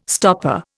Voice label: "stopper", Indian

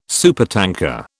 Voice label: "supertanker", British

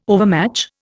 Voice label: "overmatch", Indian

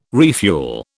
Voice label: "refuel", British

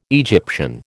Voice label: "Egyptian", American

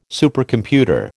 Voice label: "Supercomputer", American

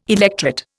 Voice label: "electret", Indian

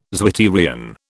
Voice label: "zwitterion", British

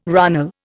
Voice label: "runnel", Indian

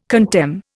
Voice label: "contemn", Indian

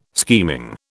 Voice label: "scheming", British

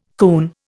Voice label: "coon", Indian

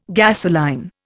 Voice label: "gasoline", Indian

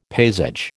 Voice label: "paysage", American

